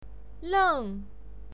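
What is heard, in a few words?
"leng", pinyin, fourth tone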